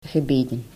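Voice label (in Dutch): "gebeden", Dutch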